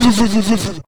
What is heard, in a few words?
possess male